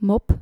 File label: "mop", Dutch